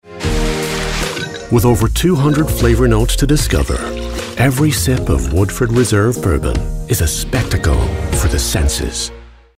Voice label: Bourbon Voice; Woodford Reserve